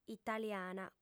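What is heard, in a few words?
"italiana", female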